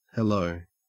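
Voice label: "hello", Australian English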